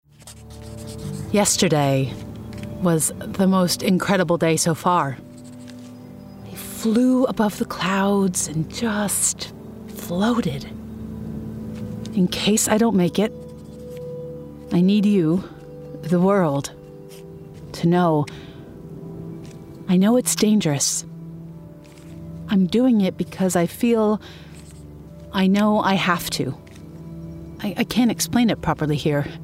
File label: GAMING Diary Natural